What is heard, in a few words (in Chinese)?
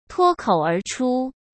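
"脱口而出", Mandarin Chinese; hsk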